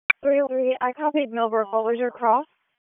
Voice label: Police Northeast District Disp, p25